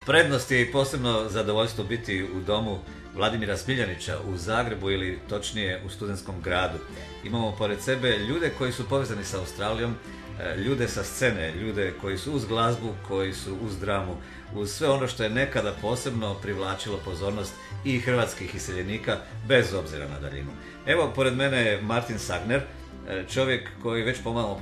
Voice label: croatian